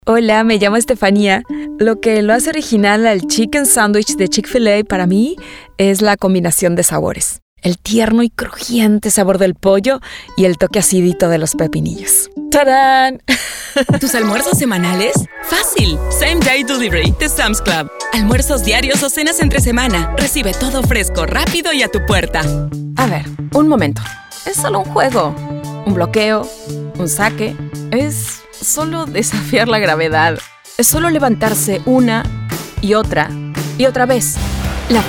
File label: Spanish Commercial